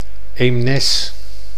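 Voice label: "Eemnes", Dutch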